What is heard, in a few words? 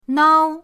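"nao", Mandarin pinyin, first tone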